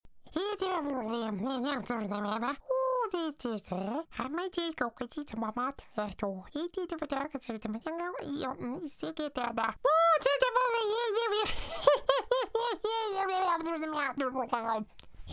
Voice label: CREATURE